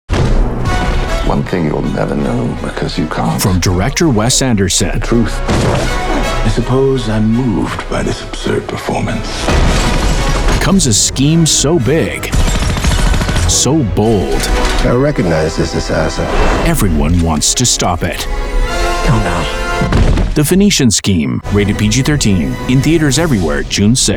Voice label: VO Trailer